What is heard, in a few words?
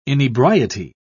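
"inebriety", British English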